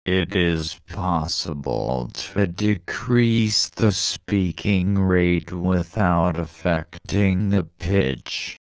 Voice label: cepstral slow